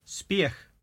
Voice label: "speeach", Cromarty; stick, small, a; Word